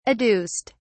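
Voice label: "adduced", British English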